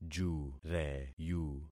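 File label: "jureyu", concatenated syllables; Affixing experiment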